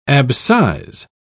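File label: "abscise", English, British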